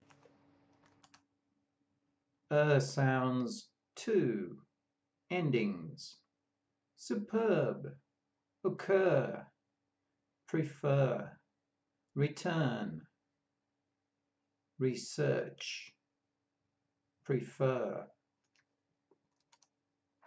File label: Ur sounds, pronunciation of australian english